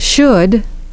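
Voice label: "should", English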